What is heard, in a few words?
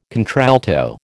"contralto", American